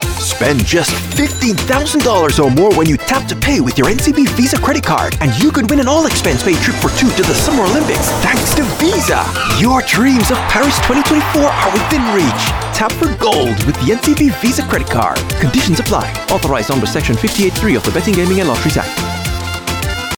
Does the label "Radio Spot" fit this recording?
Yes